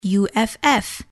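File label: uff spell, English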